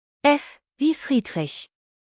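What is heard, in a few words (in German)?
"friedrich", German; F wie Friedrich; buchstabierung alt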